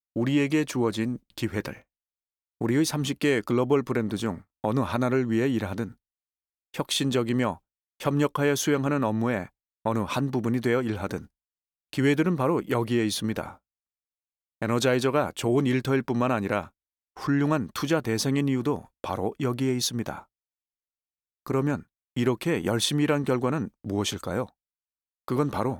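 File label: korean male narration; voice demos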